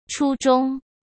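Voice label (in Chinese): "初衷", Mandarin Chinese; hsk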